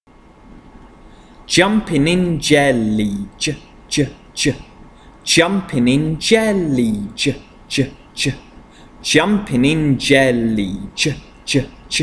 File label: Consonant Chant j